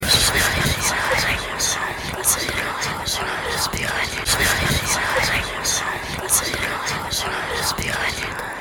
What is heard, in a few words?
Whispers